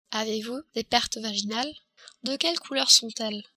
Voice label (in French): pertes vaginales, french